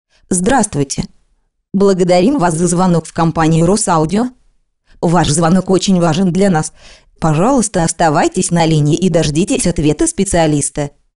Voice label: robot; ivr